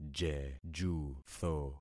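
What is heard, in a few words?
"jejutho", concatenated syllables; Affixing experiment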